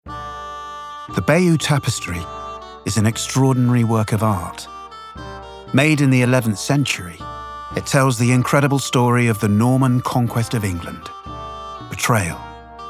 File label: Narration; Bayeux Tapestry